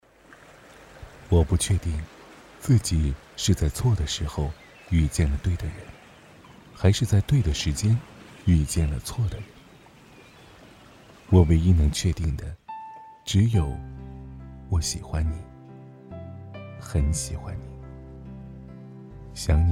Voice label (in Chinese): 旁白体 微电影